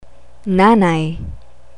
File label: "nanay", Tagalog